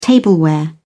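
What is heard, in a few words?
"tableware", British English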